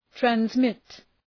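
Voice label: "transmit", English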